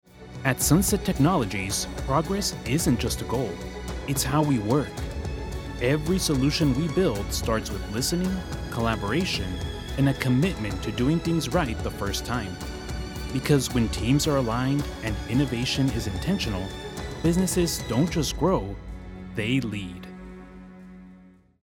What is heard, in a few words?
Corporate Brand